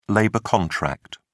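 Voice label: "labor contract", British English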